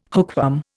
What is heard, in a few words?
"hookworm", Indian